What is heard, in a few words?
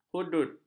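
"hudud", Malay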